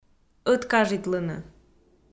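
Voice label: "ӧткажитлыны" Komi, female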